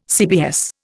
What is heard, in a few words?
"CBS", Indian